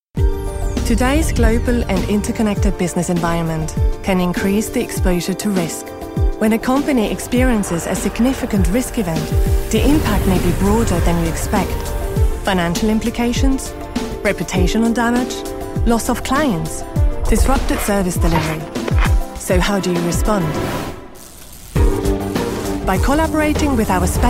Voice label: Swiss accented English

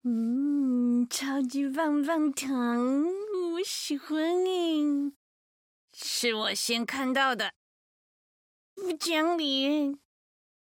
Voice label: Chinese Mandarin, general

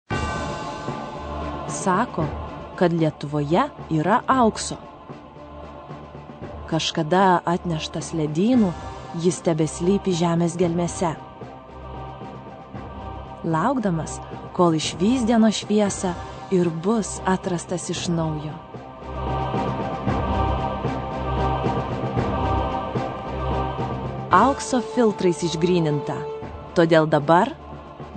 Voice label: LT, General; GoLocalise

